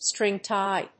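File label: "string tie", English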